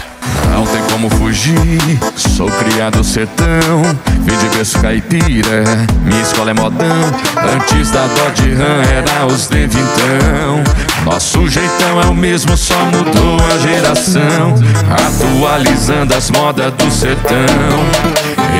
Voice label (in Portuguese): locutor a voz magnifica